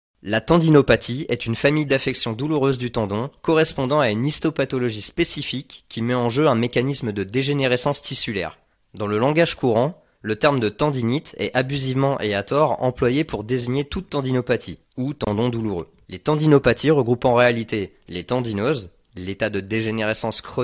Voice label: 法语男 外语 年轻; 小语种

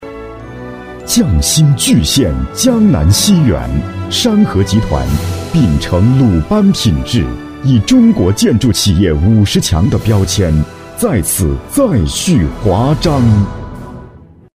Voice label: 宣传片 地产 浑厚, 男国; 江南新园

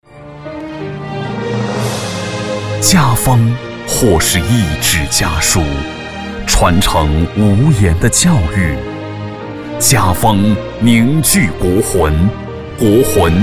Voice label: 男国 广告 公益 大气; 央视公益广告家风

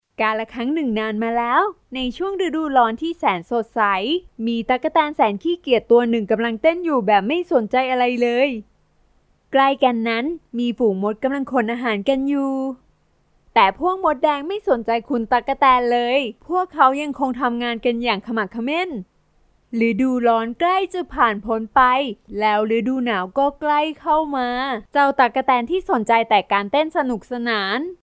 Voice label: 泰语女, 外语; 小语种; Save

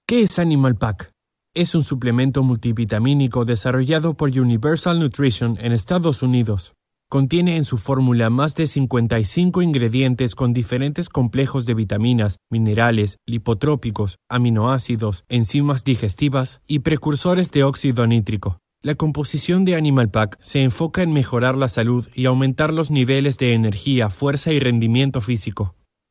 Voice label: 西班牙语男, 外语; 小语种

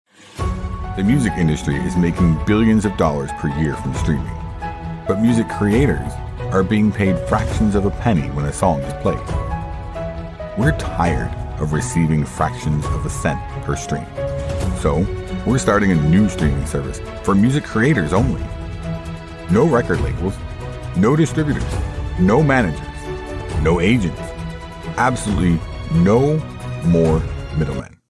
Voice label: 美式英语 科技感大气 科技, 外籍男英; 外语

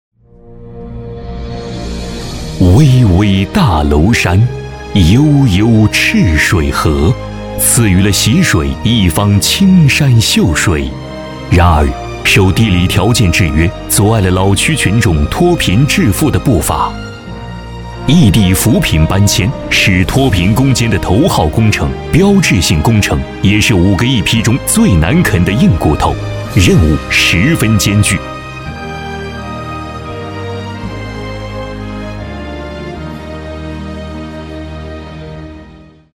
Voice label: 男国 易地搬迁建设 浑厚

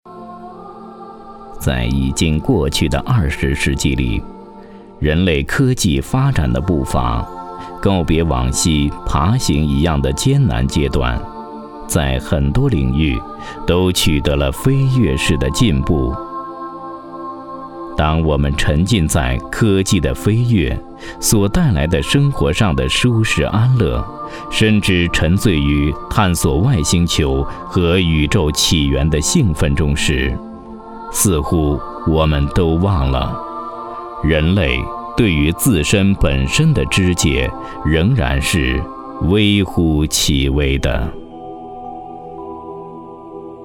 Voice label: 男国 宗教 活佛 讲述

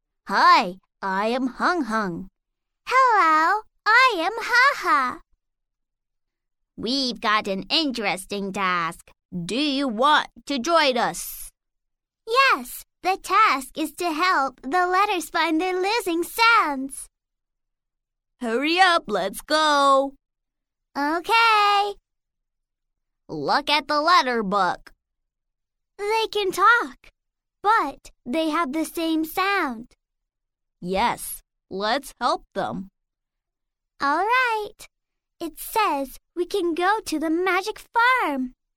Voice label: 美式英语 角色男童女童对话, 外语

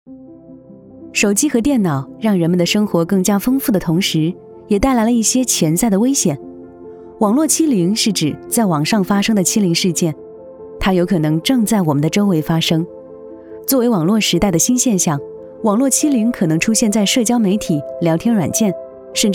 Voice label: 女 专题 产品 宣传 讲述

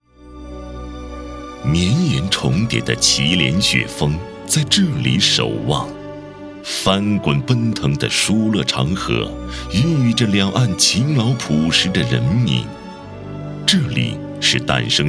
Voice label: 宣传片 城市 稳重, 男; 敬酒

